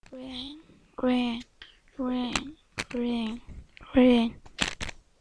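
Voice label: "ring", spoken word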